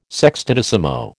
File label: "sextodecimo", American